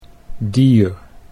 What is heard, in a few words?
"dier", Dutch